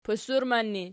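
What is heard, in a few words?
"pusurman" ergative, Archi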